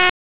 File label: Raleigh Police Downtown District Tac, p25; wake